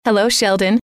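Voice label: hello, female; trainer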